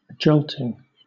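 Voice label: "jolting", British English; pronunciations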